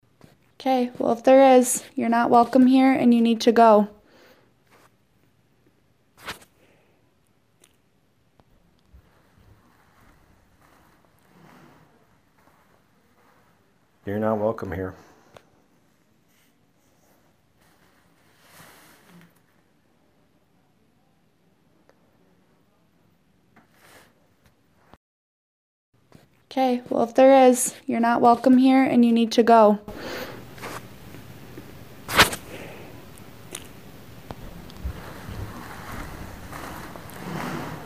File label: talking under